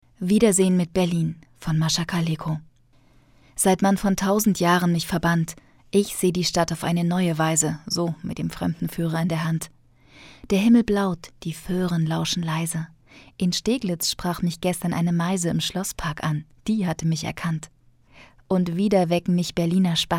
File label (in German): Hoerbuch; Wiedersehen Mit Berlin